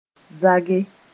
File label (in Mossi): "zage", moore